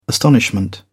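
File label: "astonishment", British English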